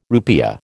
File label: "rupiah", American